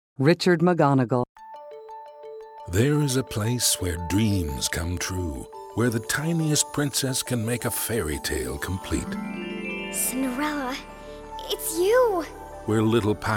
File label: Commercial